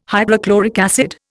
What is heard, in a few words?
"hydrochloric acid", Indian